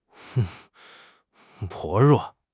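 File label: "薄弱", Chinese; HSK6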